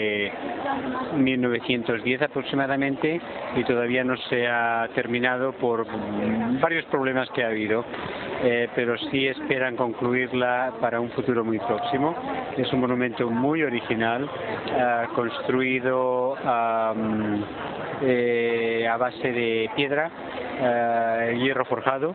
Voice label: Spain Barcelona Male